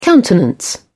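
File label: "countenance", British English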